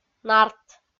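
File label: "нарт", Komi, female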